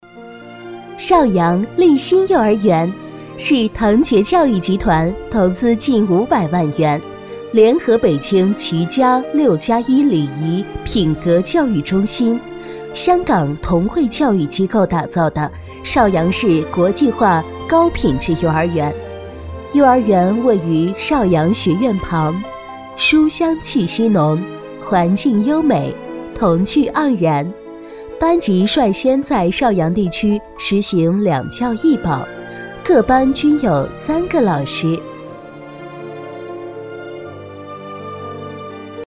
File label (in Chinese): 女 专题 邵阳丽馨幼儿园, 国语女声配音